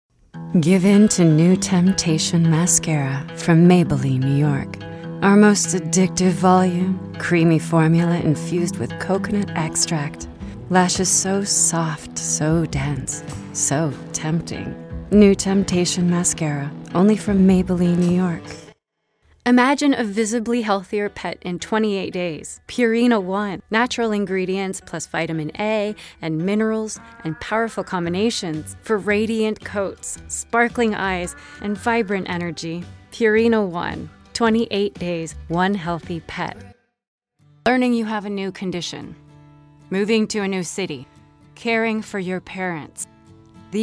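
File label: commercial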